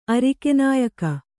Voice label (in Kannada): "ನಾಯಂಕ", Kannada